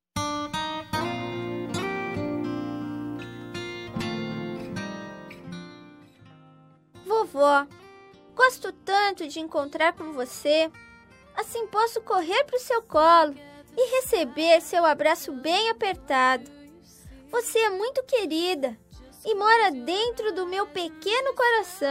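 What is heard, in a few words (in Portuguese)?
dia avó voz infantil male; dia dos avos para avo infantil voz masculino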